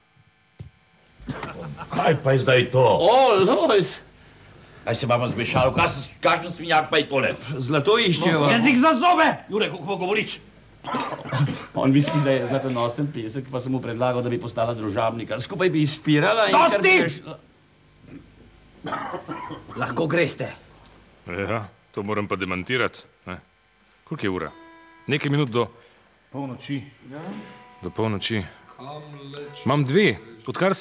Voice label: Dialog